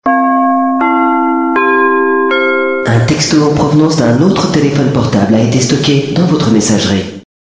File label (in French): annonce aeroport